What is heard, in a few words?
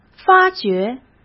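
"发觉", Mandarin Chinese; hsk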